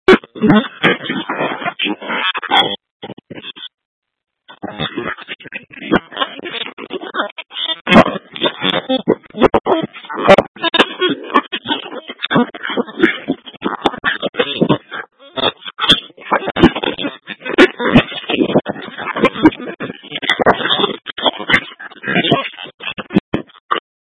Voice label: PD Fire Dispatch VHF Patch, scanner; Phx; B- Phx Fire and Public Works